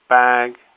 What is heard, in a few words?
"bag", English; hadhead